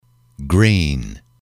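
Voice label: "green", English, male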